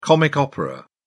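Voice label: "comic opera", British English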